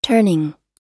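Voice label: "turning", US English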